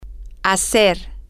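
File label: to do make, Spanish, female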